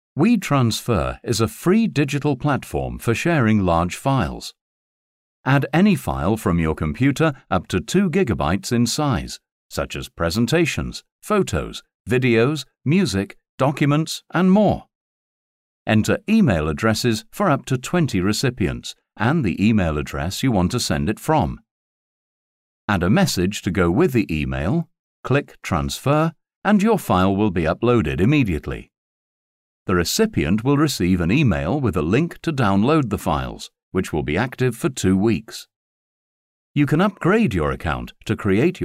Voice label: Male English